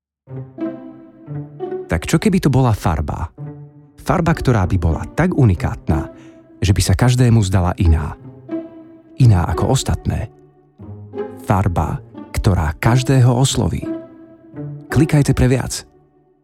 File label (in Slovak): reklama pokojne